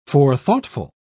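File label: "forethoughtful", British English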